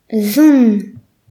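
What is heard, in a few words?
"steep", Breton; gcompris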